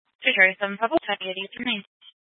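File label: Dispatch, Scanner audio recording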